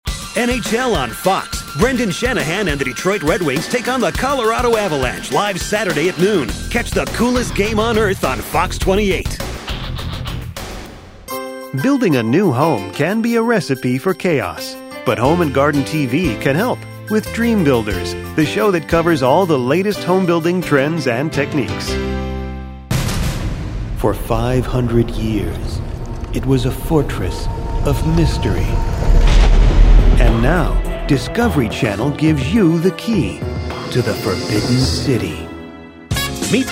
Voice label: Promo